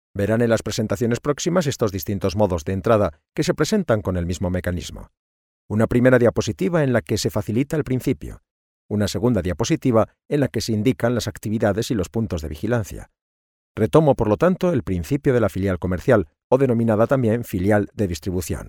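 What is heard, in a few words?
spanish male narration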